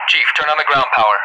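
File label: "request to turn on ground power", English speech; DCS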